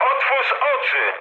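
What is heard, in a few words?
player friendly fire, mood med, Polish speech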